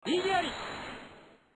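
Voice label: "igiari", Japanese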